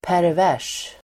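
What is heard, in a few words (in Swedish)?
"pervers", Swedish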